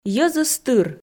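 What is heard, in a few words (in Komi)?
"йӧзыс тыр", Komi, female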